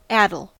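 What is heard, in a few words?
"addle", US English; pronunciations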